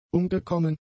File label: "umgekommen", German; adjectives